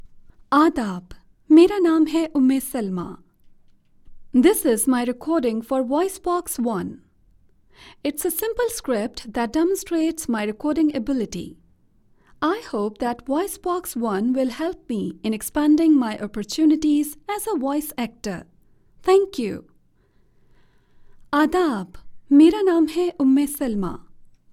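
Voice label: Urdu, voice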